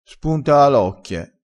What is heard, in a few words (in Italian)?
"spuntaalocchie", crespinese